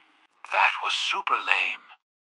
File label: upset; fertig classic games; fireball